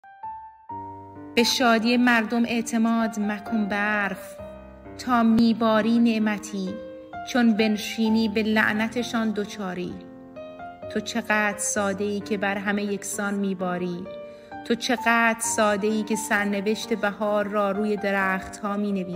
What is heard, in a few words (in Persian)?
دکلمه; به شادی مردم اعتماد; shams langeroodi; barf